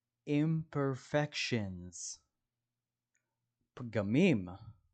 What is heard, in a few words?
"imperfections" – "פגמים"